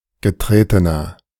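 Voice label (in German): "getretener", German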